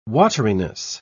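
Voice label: "wateriness", British English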